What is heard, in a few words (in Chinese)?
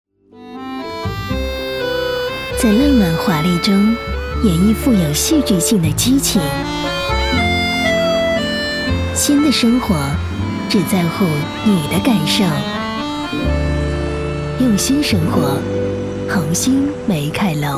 广告 家居家电 优雅; 红星美凯龙